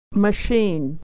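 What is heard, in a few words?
"machine", American English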